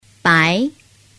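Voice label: "bai", Mandarin Chinese; phan biet am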